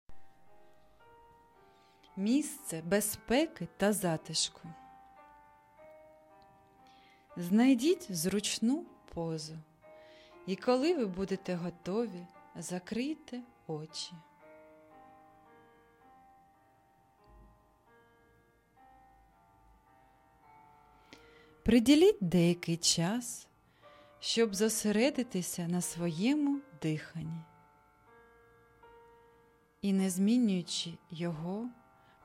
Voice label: audio meditatsiya ukr version; Ukrainisch; bezpeki ta zatishku safety place